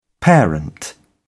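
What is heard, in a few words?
"parent", British English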